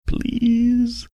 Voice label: funny drawn out please